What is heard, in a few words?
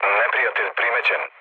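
Serbian enemy detected mood low; War Thunder; speech srb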